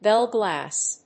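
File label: "bell glass", English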